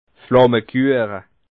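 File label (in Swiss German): "flammekueche", Bas Rhin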